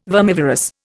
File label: "vermivorous", Indian